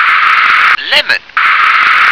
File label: "lemon", English